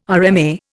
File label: "RMA", Indian